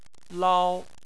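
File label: "lao", Chinese, first tone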